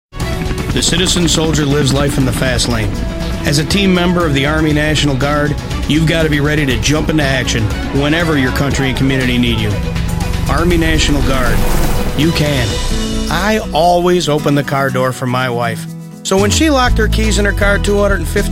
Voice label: voiceover male